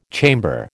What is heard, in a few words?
"chamber", American